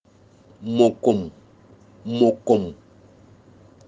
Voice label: "mokom", palabra fang

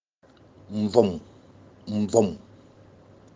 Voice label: "nvom", palabra fang; glosario potopoto

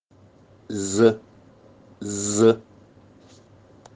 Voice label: "nze", palabra fang

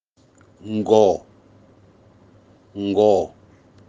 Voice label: "ngoo", palabra fang

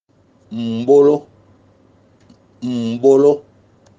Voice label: "ambolo", palabra fang

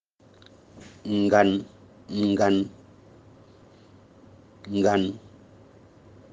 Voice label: "ngang", palabra fang

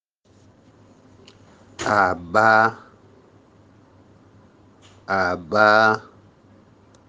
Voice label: "abaa", palabra fang

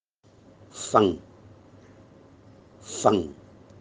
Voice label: fang palabra fang

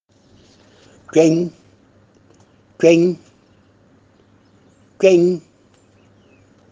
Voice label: "kuen", palabra fang

